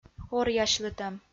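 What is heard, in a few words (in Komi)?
"оръясьлытӧм", Komi, female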